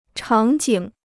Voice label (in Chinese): "场景", Chinese